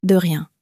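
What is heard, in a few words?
"de rien", French, female; flashcards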